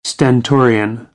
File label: "stentorian", American English; Vocabulary